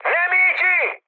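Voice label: Italian enemy detected mood high, speech; War Thunder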